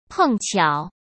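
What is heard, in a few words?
"碰巧", Mandarin Chinese; chinese flashcards; hsk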